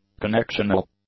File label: "connectional", English; adjectives